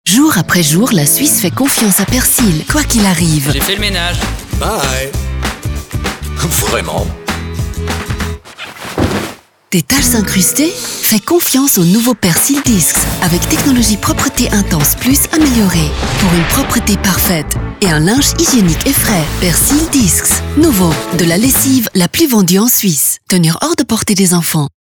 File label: TVC FR; Henkel Persil Advanced 2021